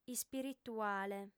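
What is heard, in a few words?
"ispirituale", female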